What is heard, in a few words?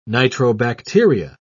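"nitrobacteria", British English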